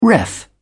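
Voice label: "ref", English, uk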